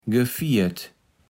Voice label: "gefiert", Kaevels Platt